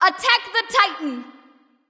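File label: vox attack male; smite; awilix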